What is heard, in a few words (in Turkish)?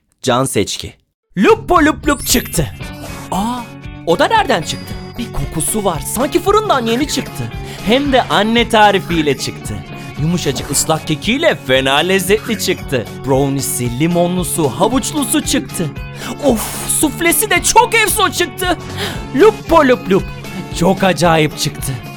turkce erkek